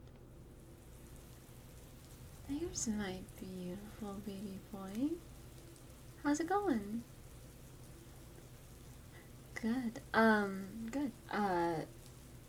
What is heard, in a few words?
F4M Kissing; Rainy Day Reward; gfe; FDom